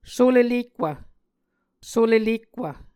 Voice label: "sule-lekwv", Muscogee

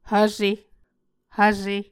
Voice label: "hvse", Muscogee

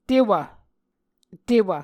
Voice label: "tewv", Muscogee

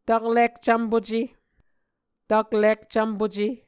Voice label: "taklik cvmpuce", Muscogee; Mvskoke Words with Voicing Consonants; taklik-cvmpuce c / j; A Dictionary of Creek/Muskogee; SIL International

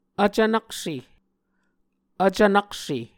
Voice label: "vcvnakse", Muscogee

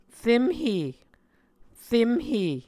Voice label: "remhe", Muscogee

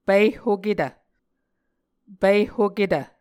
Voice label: "pihhoketv", Muscogee; Mvskoke Words with Repeated Consonants; Martin, Jack B., and Margaret McKane Mauldin. 2023. A Dictionary of Creek/Muskogee

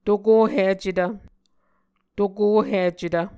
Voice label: "tokohicetv", muscogee

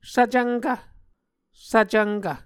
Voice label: "svcvnkv", Muscogee

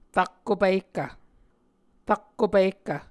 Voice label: "rakko pihkv", Muscogee

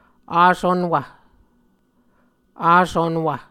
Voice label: "asunwv", muscogee

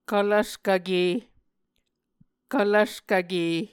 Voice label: "kvlvskvke", Muscogee

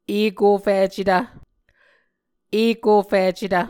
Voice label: "ekoficetv", muscogee

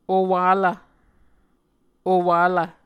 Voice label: "owalv", Muscogee

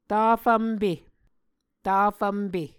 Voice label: "tafvmpe", Muscogee

